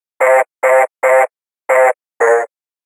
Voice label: Sinking Voice

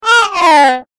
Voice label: Sleepy Voice

